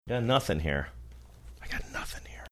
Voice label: quietly saying got nothing here